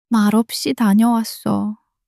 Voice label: crying, monologue